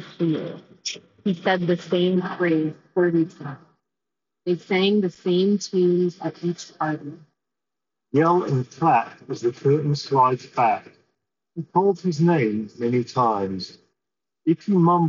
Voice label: Sony Linkbuds S Street microphone